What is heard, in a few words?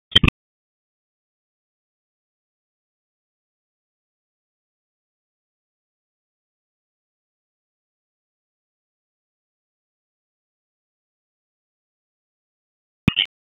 Police Southeast District Tac 1, p25; Raleigh; wake